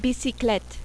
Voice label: "bicyclette", French

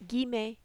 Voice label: "guillemet", French

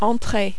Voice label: "entree", French

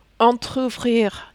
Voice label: "entrouvrir", French

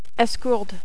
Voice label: "esgourde", French

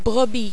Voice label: "brebis", French

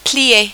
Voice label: "plier", French

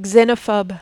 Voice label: "xenophobe", French

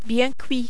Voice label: "bien cuit", French

